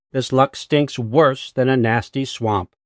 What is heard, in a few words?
upset; fertig classic games